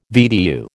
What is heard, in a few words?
"VDU", American